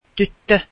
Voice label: finnish girl